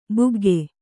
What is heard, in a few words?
"ಬಗ್ಗು", Kannada